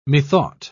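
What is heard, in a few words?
"methought", English, British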